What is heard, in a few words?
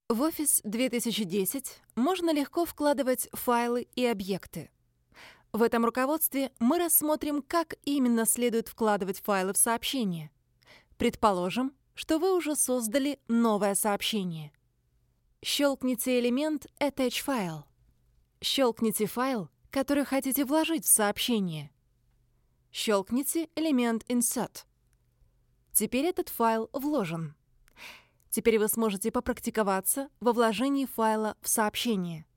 Russian E-learning Tutorial